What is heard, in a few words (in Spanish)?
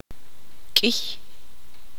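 "muchos", Tz'utujil, female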